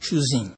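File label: "choosing", Nigerian Pidgin, male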